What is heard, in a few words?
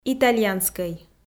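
"итальянскӧй", Komi, female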